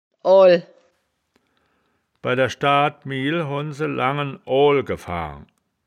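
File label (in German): "oal", Wittlicher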